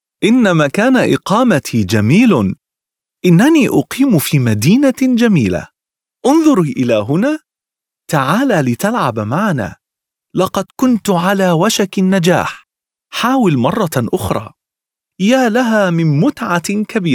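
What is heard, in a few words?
Arabic male children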